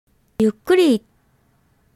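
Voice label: pronunciation "yukkuri", Japanese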